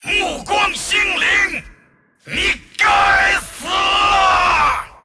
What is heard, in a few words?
attack, zh